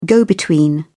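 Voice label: "go-between", British English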